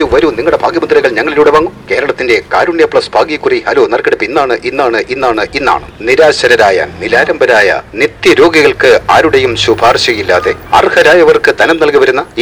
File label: voices lotto radio, South India street recordings